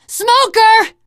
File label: warn smoker, teengirl; voice; source engine; left 4 dead 2; survivor